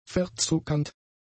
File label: "verzuckernd", German; adjectives